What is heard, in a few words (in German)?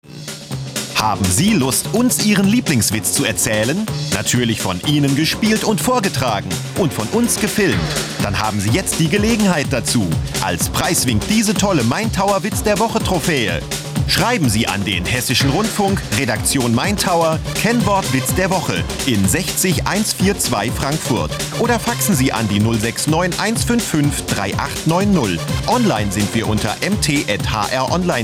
Trailer TV-Spot; HR